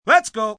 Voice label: Lets Go, Film, movie, direction, assistant director, male 'Lets go', (human voice speaking)